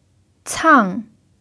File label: "cang4", chinese